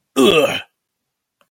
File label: hurt; The Mercenary Chronicles